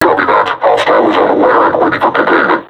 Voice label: taunt, vo combine suppressor; Half Life Alyx